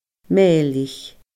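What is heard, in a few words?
"mèilich", Scottish Gaelic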